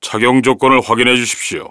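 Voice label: system voice equip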